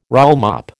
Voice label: "rollmop", American

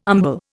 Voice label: "umbel", Indian

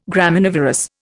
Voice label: "Graminivorous", Indian

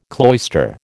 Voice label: "cloister", American

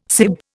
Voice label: "sib", Indian

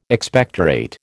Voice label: "Expectorate", American

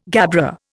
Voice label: "gabbro", Indian

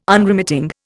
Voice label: "Unremitting", Indian

